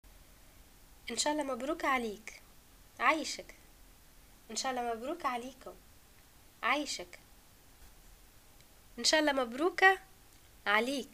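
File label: greeting new thing, Tunisian Arabic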